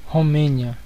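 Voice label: "romania", Brazilian Portuguese; gcompris; geography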